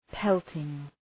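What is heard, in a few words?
"pelting", English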